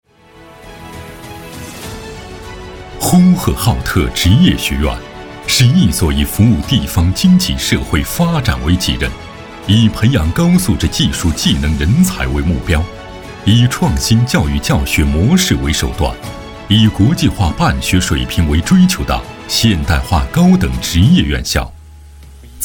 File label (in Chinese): 男 专题 学校 片 大气厚重; 呼和浩特职院